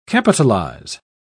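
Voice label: "capitalise", British English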